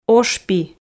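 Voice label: "ошпи", Komi, female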